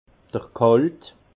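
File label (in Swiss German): "ghalt", Alsace Haut Rhin; vin; jauge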